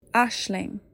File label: Aisling, irish names